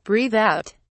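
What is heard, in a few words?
"breathe out", British English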